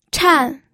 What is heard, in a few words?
"chan4", pinyin